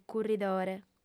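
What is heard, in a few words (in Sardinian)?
"curridore", female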